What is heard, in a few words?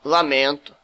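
sorry, Brazilian Portuguese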